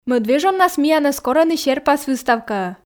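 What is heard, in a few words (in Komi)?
мӧд вежоннас миянӧс корӧны серпас выставкаӧ, Komi, female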